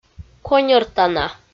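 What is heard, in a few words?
"коньӧртана", Komi, female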